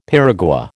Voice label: "piragua", American